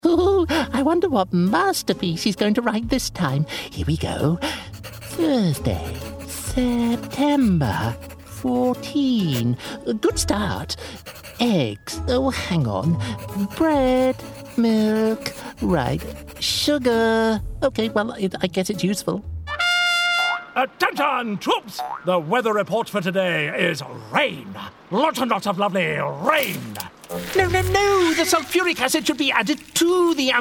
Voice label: character voices; Silver Vox